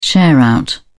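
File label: "share-out", British English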